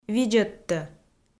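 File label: "видзӧдтӧ", Komi, female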